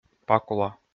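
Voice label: "пакула", Komi, male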